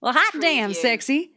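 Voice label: Female Says Hot Damn Sexy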